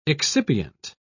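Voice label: "excipient", British English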